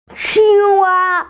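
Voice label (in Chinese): "qing1wa1", Chinese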